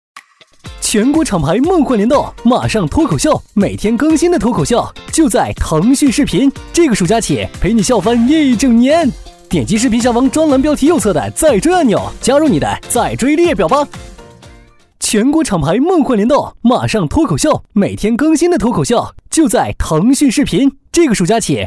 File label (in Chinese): 男 综艺广告【青春活泼】; 年轻活力 轻松活泼; 马上脱口秀